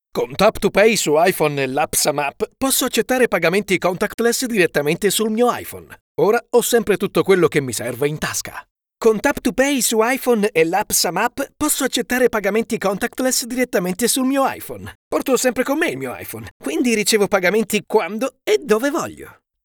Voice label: male italian tap to pay iphone commercial